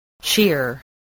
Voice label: "shear", spelling

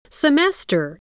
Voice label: "semester", english spelling

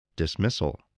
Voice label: "dismissal", spelling

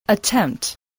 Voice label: "attempt", english

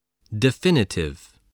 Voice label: "definitive", English

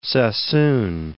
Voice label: "Sassoon", English

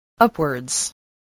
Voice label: "upwards", English

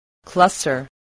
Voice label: "cluster", voice

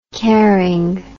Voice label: "caring", English

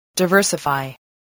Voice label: "diversify", spelling

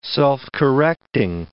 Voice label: "self-correcting", English

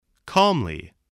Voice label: "calmly", spelling

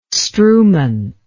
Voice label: "strewment", English

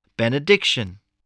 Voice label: "benediction", English

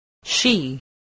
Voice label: "she", spelling

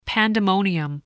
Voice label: "pandemonium", English voice

